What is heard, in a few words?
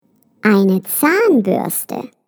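"toothbrush", German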